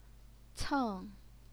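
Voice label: "ceng", Mandarin Chinese, fourth tone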